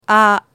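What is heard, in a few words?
"a", Japanese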